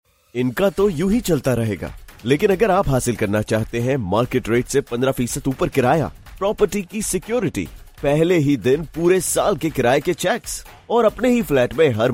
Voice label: urdu commercial; telenor